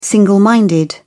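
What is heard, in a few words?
"single-minded", British English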